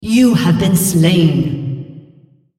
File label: female, en US